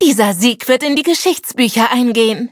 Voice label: victory, de